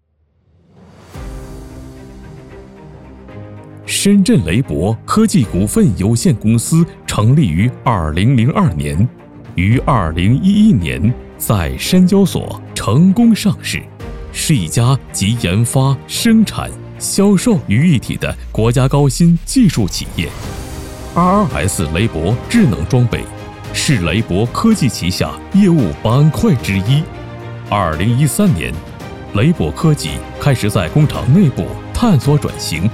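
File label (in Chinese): 宣传片科技股份有限公司; 深圳雷柏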